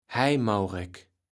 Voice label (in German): "Heijmaurek", Platt